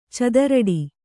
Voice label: "ಚಿತ್ತರಟೆ", Kannada